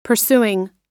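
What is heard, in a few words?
"pursuing", US English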